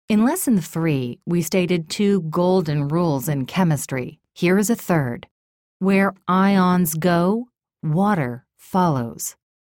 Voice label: Instructional Sincere